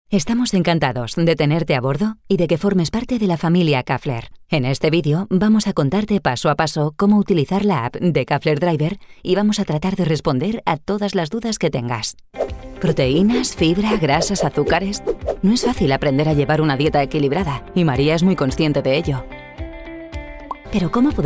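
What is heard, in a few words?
Spanish, European, female, Narration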